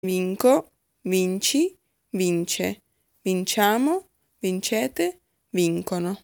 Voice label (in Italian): Vincere coniugazione, Italian